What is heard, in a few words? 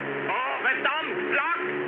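German damaged aa mood low, speech; War Thunder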